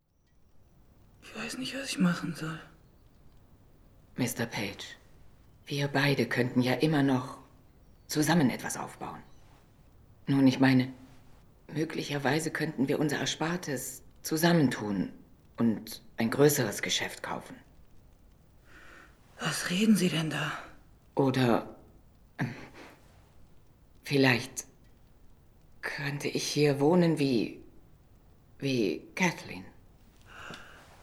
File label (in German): Sprecherin; FILM-SYNCHRO